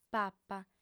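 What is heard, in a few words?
"papa" Sardinian, female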